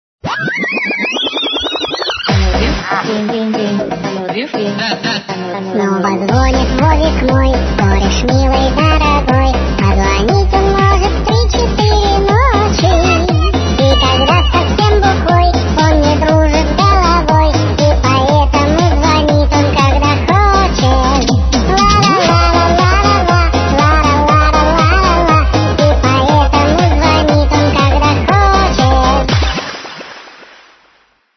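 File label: call from friend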